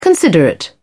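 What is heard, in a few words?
"considerate", English, British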